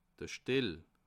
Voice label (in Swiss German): "stell", alsace